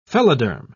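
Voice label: "phelloderm", British English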